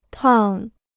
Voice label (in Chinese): "趟", Chinese